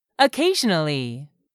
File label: "OCCASIONALLY", English; 3000 words